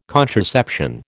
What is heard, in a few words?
"contraception", American